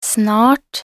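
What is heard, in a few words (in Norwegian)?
"snart", Norwegian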